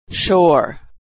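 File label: "shore", American English; vowel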